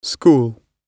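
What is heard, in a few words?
"school", British English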